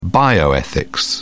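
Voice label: "bioethics", English, uk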